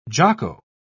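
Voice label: "jocko", British English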